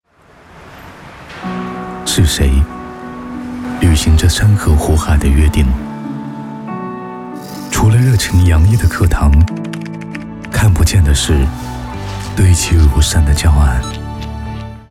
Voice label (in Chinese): 磁性质感男声; 教师之美